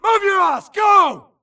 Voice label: grunt flee Player Titan Call; imc; Titanfall 2

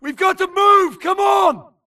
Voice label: grunt flee Player Titan Group Call; imc; Titanfall 2

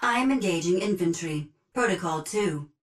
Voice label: titan Vanguard auto Engage Grunt; Titanfall 2

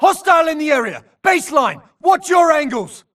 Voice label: grunt trans Idle To Alert Init; imc; Titanfall 2

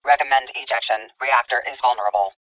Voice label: titan Tone doom Eject; Titanfall 2

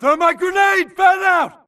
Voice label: grunt react Grenade Thermite; imc; Titanfall 2

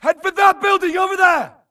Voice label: grunt flee Player Titan Building Call; imc; Titanfall 2

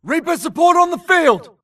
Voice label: grunt react Reaper Friendly Arrives; imc; Titanfall 2

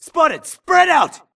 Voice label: grunt grenade response; imc; Titanfall 2

